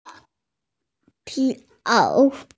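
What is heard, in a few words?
"pi2 ao3", Mandarin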